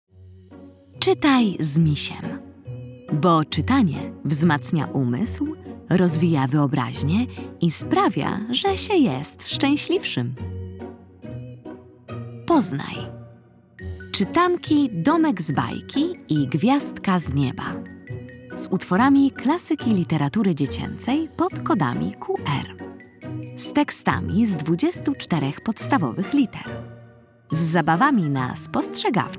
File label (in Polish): narracja; czasopismo mis; lato; czytam z misiem